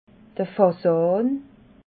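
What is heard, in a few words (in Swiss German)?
"fasan", Alsatian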